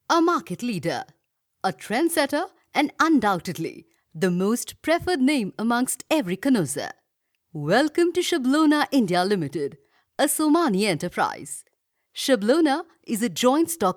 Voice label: English, Corporate